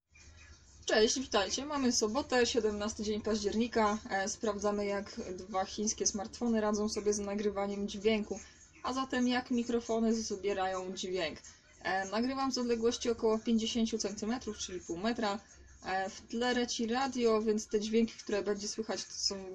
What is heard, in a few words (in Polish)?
meizu test mikrofonu